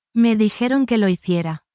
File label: spanish, spanish phrases you can use in any workplace